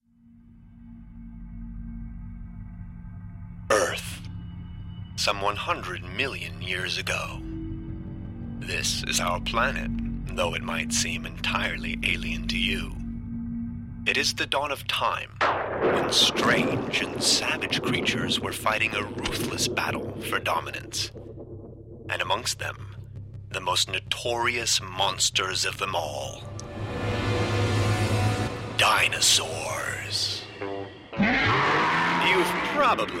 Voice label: us movie, sprecher englisch

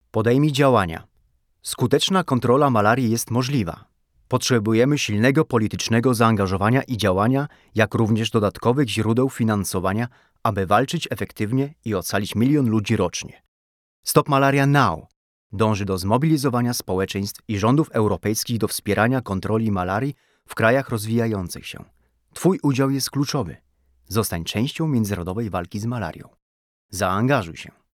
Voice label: voiceover, polnisch

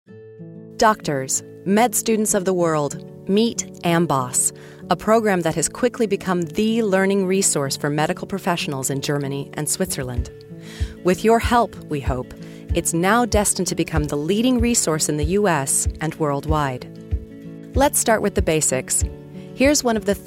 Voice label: us image, englisch; sprecher

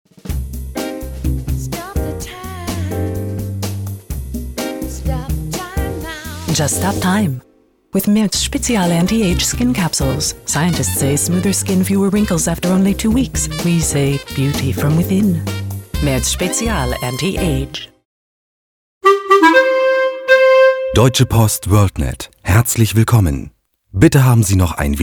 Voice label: US commercial; sprecher; englisch

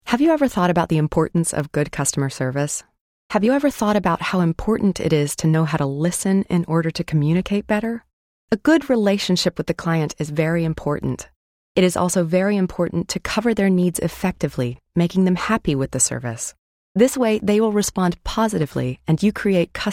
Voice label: US elearning, englisch; sprecher

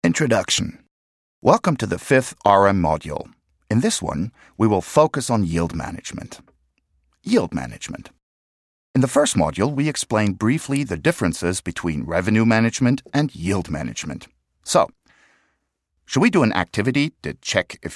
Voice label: US elearning; sprecher; englisch

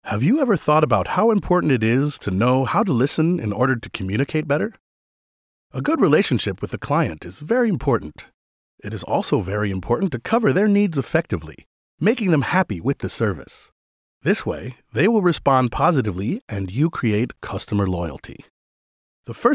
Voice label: US elearning; sprecher; englisch

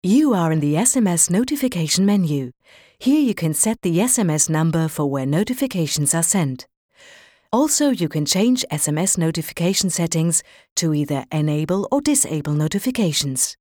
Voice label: englisch; sprecher

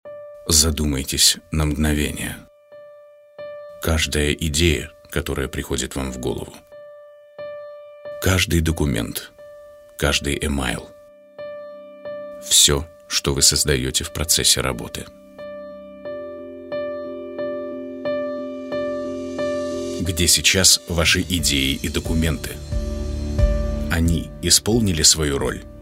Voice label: ru Voiceover, russisch

